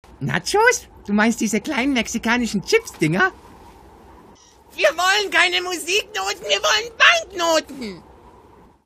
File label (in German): Charge Trickstimme